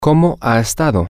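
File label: how have you been, spanish, male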